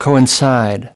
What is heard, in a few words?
"coincide", US